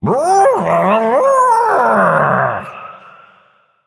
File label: Male Murloc Mirror Greetings; en